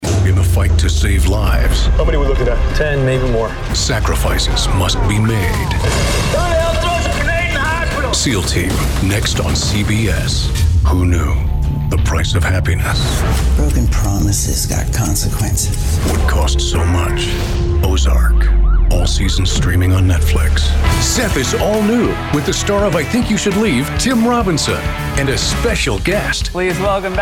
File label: tv promo; tv promo demo